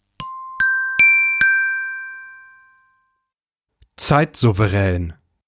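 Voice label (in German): "zeitsouveraen", German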